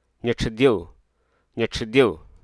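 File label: Hruso Aka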